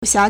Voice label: "xia2", Chinese